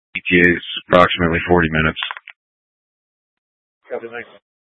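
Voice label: Incident Tactical 1, Scanner audio recording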